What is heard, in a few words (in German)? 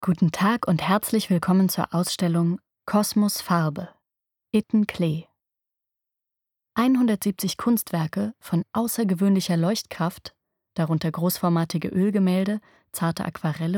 audioguide